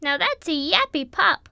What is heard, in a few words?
"ch" yap; The Interactive Alphabet